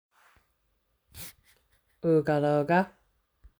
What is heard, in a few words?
in Cherokee